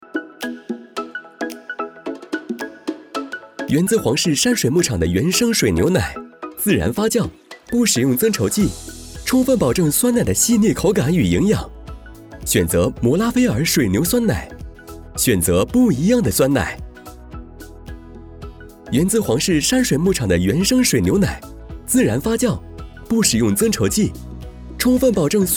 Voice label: 广告活力年轻; 皇氏牛奶

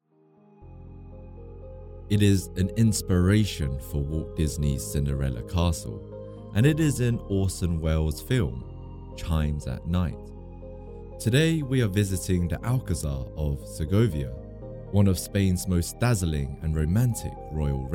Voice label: 男英式景点; 塞哥维亚城堡

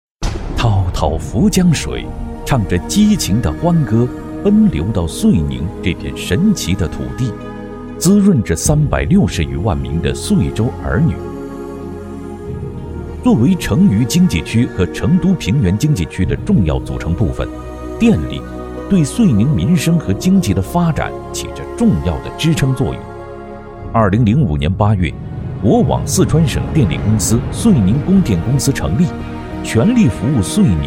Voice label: 专题片电力

